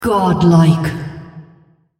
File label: female On Killing Spree